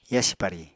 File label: "yasi pari", Apatani